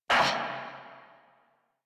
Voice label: Pain Crewmen, en; Warframe; Corpus Crewmen; Crewman A; Battle Barks